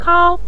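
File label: "kao", Mandarin Chinese, neutral tone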